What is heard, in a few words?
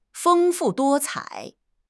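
"feng1 fu4 duo1 cai3", Chinese; 汉语口语新时代-初级下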